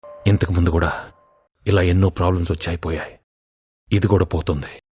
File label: dialogue ringtone; drushyam 2; venkatesh